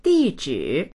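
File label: "di4zhi3", Mandarin Chinese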